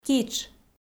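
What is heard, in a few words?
"китш", Komi, female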